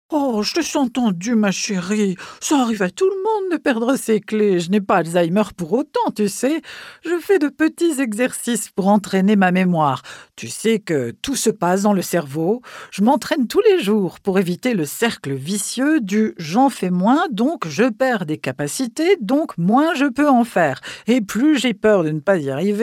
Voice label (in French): dessin anime femme agee vieille; showreel